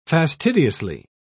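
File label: "fastidiously", British English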